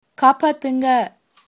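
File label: help, Tamil, female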